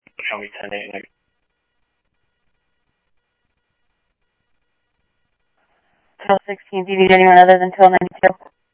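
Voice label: Scottsdale police department, Scottsdale Police, scanner; SCTSDLE PD - PD2; ThompsonPeakHigh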